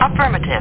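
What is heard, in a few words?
"Affirmative", speech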